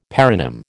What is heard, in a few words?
"paronym", American